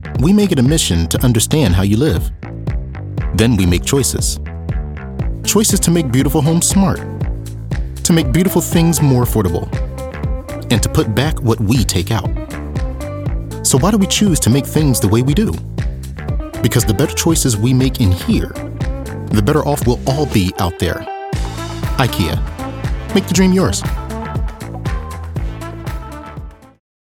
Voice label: Understanding, Upbeat; Ikea